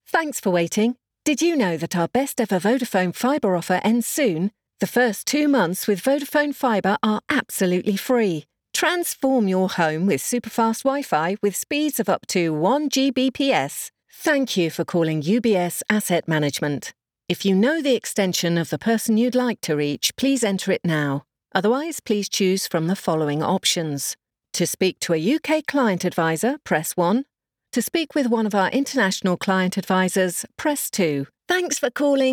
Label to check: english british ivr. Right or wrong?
Right